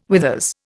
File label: "withers", Indian